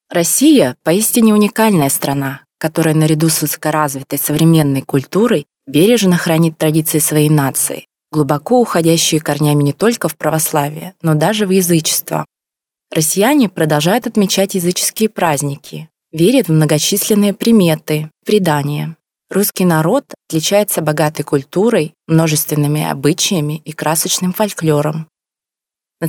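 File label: Female Russian Other Projects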